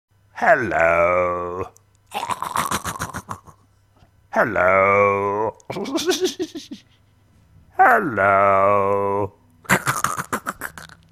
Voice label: Hello & Laugh